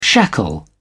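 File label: "shackle", British English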